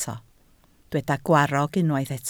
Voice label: "gwarrog", Welsh